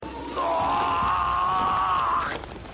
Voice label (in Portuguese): giorno gritando em japones